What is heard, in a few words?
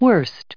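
"worst", US English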